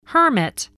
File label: "hermit", English, spelling